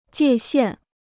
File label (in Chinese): "界限", Chinese